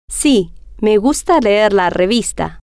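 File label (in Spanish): si Me Gusta Leer Revista, spanish, female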